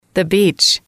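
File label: "beach", female, English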